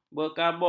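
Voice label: "berkabung", Malay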